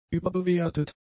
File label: "überbewertet", German; adjectives